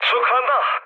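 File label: Korean player killed enemy mood low; speech; War Thunder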